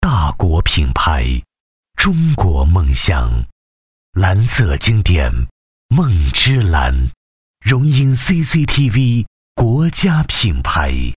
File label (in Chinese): 男 广告; 酒类; 蓝色经典梦之蓝